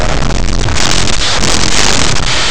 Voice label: "infeliz", nasals; laboratori fonetica

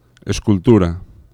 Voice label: "escultura", fricatives; laboratori fonetica

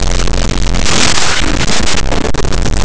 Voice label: "asta", fricatives; laboratori fonetica

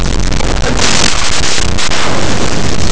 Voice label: "asno", fricatives; laboratori fonetica

